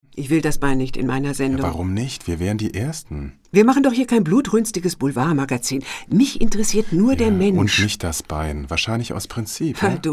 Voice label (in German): dialog